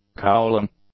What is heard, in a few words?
"cowling", English; nouns